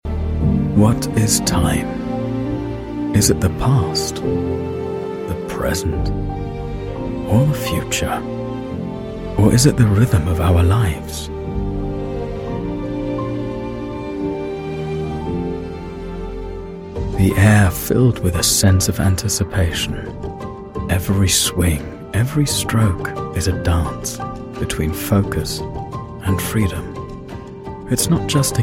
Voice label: ENGLISCH, NATIVE UK - ruhig, nachdenklich, Commercial (Werbung); ROLEX